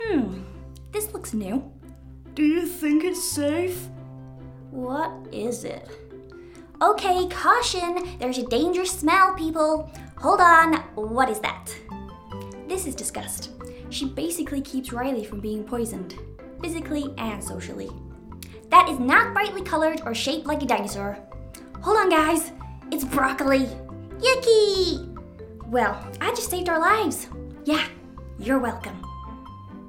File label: with music; Inside out